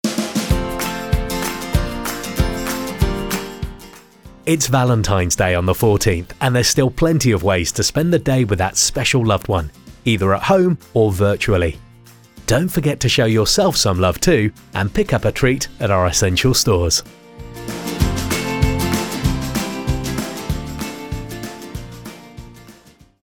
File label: Valentines Day Male